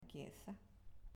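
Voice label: "Chiesa", Italian, female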